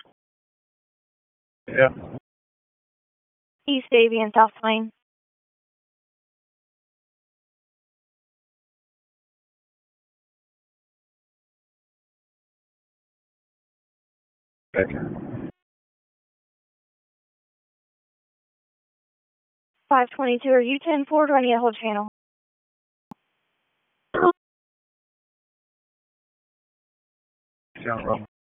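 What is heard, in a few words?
Raleigh Police Southeast District Dispatch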